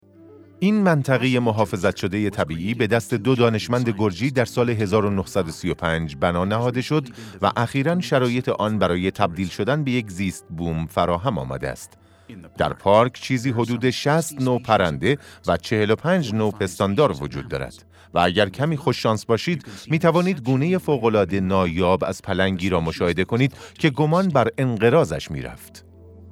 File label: documentary